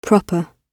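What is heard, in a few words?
"proper", British English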